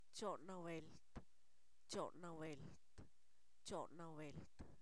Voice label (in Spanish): trio, Zapoteco, san miguel albarradas; numeros